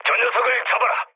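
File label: Korean attack position mood low; speech; War Thunder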